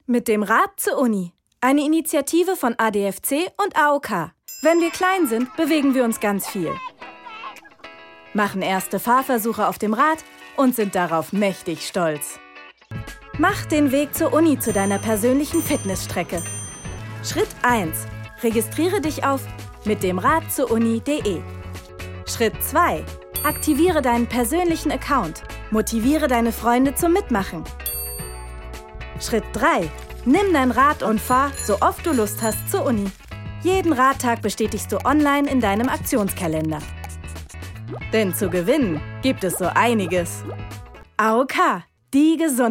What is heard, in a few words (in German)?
IMAGEFILM; AOK